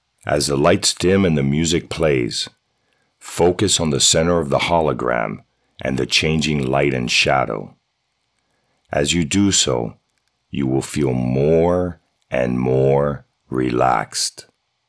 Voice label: self help, voice over; Clear Wave Voice Over